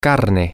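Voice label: meat, Spanish, male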